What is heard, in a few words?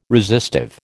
"resistive", American